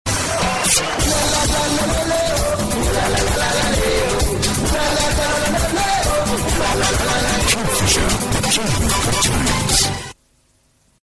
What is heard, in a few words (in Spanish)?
oo la la gracioso castellano tono de llamada